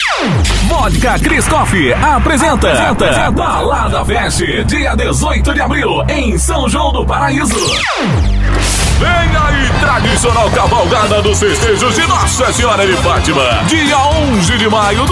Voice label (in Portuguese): demo locução Brasil